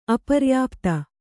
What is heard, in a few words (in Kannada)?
"ಅಪರ್ಯಾಪ್ತ", Kannada